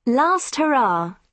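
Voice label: "last hurrah", British English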